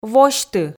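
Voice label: "восьты", Komi, female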